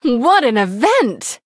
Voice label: Female Human Wow; en